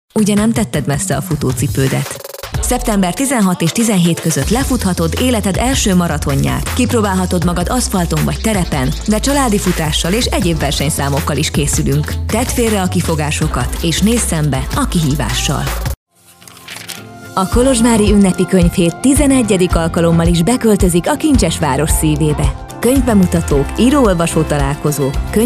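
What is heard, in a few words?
Hungarian female; lektoring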